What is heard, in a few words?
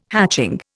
"hatching", Indian